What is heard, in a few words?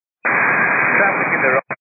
122.925 MHz; scanner radio